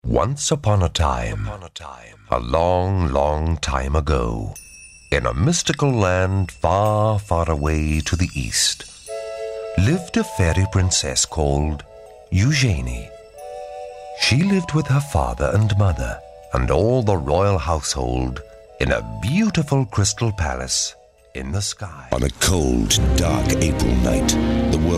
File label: Narr